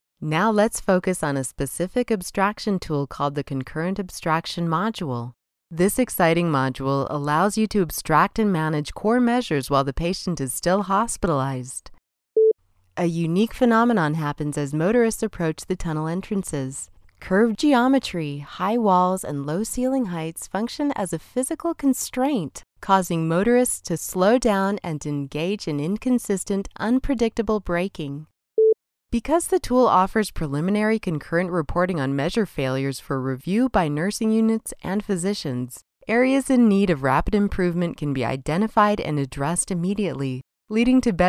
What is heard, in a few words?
education presentation